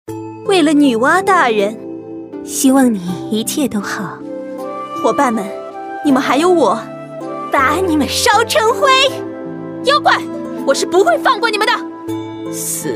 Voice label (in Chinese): 女生